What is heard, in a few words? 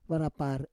"varapar", Roon